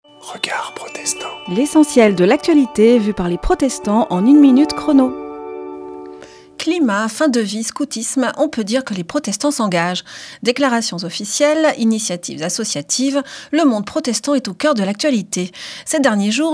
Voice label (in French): Spot radio; Regards protestants